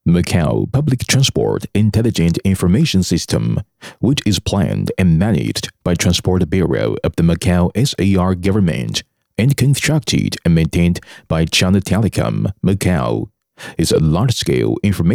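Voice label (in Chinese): 专题市政智能公交系统英文样音; 澳门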